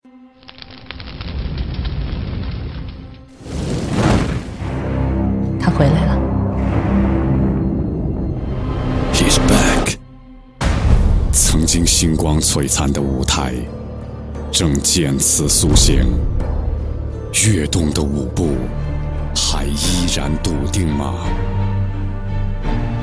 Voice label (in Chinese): 男 宣传片; 星动亚洲; 总概念宣传片